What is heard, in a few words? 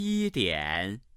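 "yi1dian3", Chinese, male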